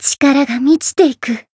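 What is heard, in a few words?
Voice growth, Japanese; radica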